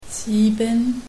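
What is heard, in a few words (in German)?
"sieben", German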